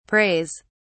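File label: "prays", English, British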